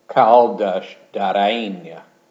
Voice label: "Caldas da Rainha", Portugal